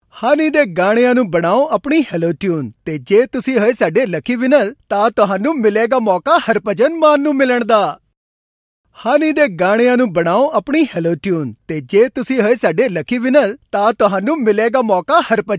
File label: IVR/Phone systems Male Punjabi